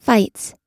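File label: "fights", US English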